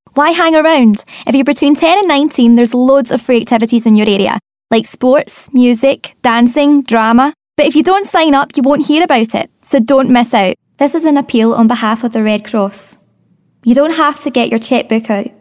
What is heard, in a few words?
Adverts, Scottish voice overs